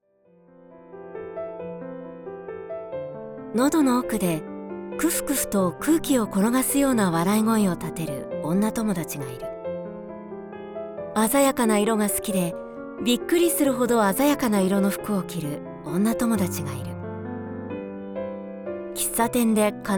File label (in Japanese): やわらかい 化粧品CM; VOICE SAMPLE